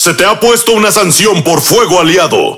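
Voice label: Spanish Speech; Friendly Fire Punishment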